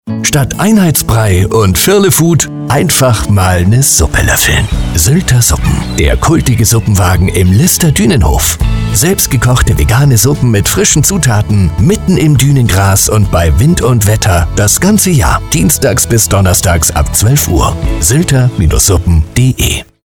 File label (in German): Funkspot; Sylter Suppen